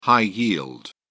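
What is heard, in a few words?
"high-yield", English, British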